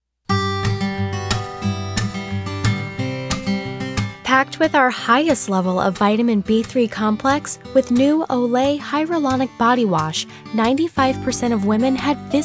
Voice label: Commerial Voiceover; Reel